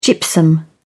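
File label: "gypsum", British English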